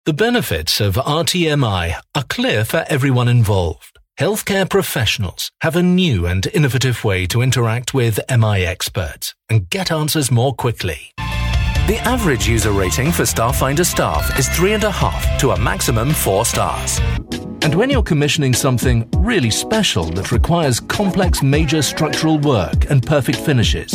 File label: corporate